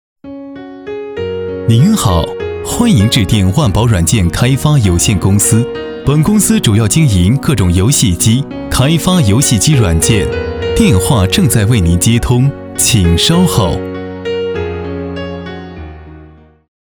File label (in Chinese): 男 彩铃; 软件公司